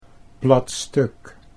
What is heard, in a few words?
"plat stuk", Dutch